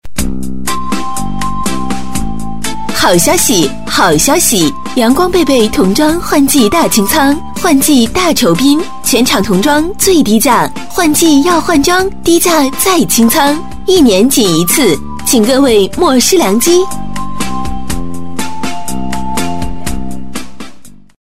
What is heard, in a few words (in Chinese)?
促销